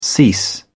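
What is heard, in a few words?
"cease", British English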